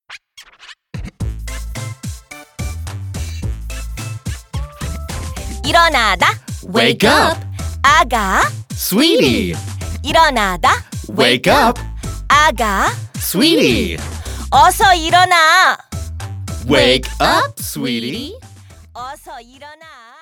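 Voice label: word English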